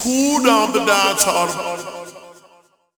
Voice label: Cool Vox

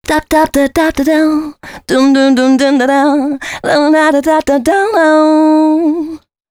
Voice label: female c#133, adlips; Mutekki Media; Dance Ultra Voice VOL-1

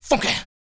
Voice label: male spoken; R&B Dance

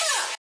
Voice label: bad narrator adlib "uh"

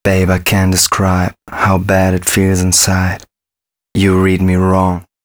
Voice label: male a# 128, hooklines, Dance Ultra Voice; hooklines 121-130 BPM; Mutekki Media; Dance Ultra Voice VOL-1

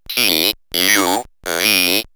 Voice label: Robot T, U, V, robot vox; X-Static Goldmine

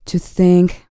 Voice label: FEM SPOKEN; ILIO; Vocal Planet VOL-4; R&B & Dance

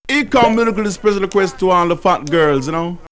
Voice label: Jamaican Fat Girls, vox; X-Static Goldmine

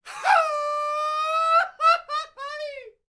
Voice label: MEXICO VOICE, MEXICAN; ILIO; Vocal Planet VOL-5

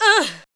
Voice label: ADLIBS 90; Ueberschall; Jam Box

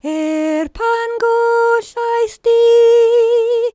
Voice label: WELSH F, World Voices

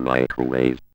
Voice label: ROBO VOX; Masterbits - Tekkno Trax

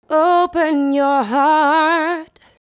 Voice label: "Open Your Heart", vocal phrases; Ultimate Vocals

